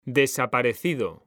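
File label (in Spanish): "desaparecido", Spanish; mekanta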